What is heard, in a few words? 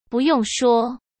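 "不用说", Mandarin Chinese; chinese-flashcards; hsk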